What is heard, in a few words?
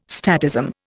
"statism", Indian